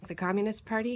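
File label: "the communist party", American English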